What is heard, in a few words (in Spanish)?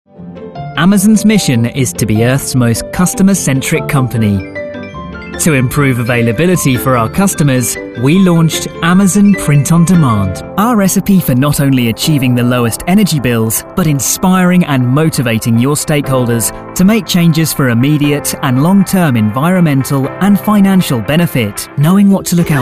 Masculino